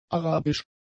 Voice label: "arabisch", German; adjectives

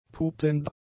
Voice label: "pupsend", German; adjectives

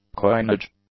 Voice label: "coinage", English; nouns

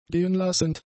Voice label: "gehenlassend", German; adjectives

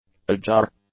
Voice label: "ajar", English; adjectives

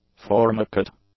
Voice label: "formicate", English; adjectives

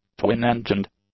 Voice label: "twin-engined", English; adjectives

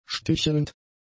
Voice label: "stichelnd", German; adjectives

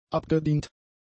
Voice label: "abgedient", German; adjectives

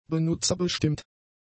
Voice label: "benutzerbestimmt", German; adjectives

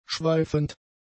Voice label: "schweifend", German; adjectives

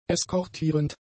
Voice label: "eskortierend", German; adjectives

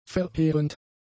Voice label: "verheerend", German; adjectives

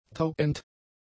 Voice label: "tauend", German; adjectives

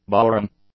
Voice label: "boring", English; adjectives

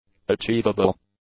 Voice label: "achievable", English; adjectives

